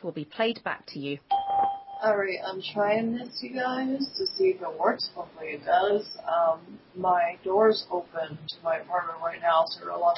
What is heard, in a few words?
outgoing peer-to-peer call echo; echo123